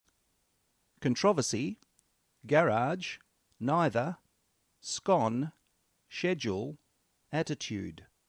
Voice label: six words male Melbourne Australia

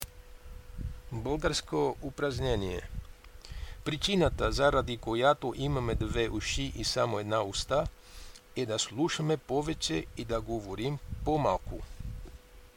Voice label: bulgarian practice

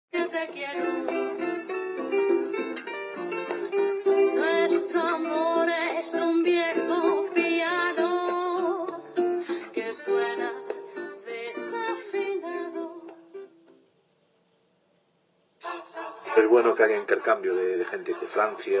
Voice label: modern sw radio via digital radio mondiale; radio espania

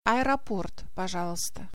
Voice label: how to say airport in Russian

